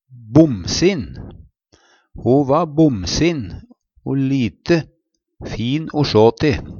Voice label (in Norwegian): bomsin, Numedalsmål; Adjektiv